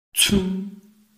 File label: "cun1", Chinese